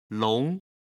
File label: "long", Chinese